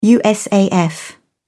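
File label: "usaf", British English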